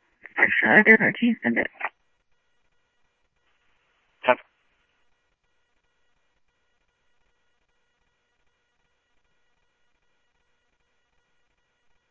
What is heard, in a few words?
Mesa Simulcast, MESA PD, scanner audio